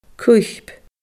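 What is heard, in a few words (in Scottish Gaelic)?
"cuip", Scottish Gaelic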